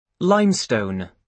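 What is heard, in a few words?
"limestone", British English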